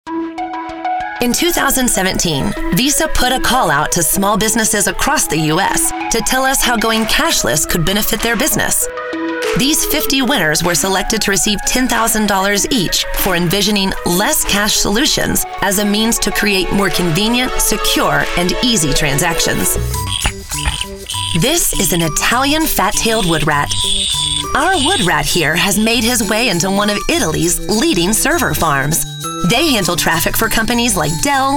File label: Corporate Explainer